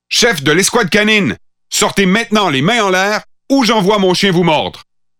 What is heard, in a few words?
Pathfinder French Standard Canadian